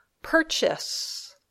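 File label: "purchase", English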